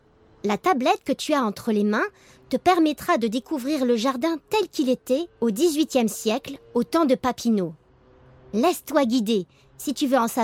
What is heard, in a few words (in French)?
Audioguide Chateau Luneville Voix fille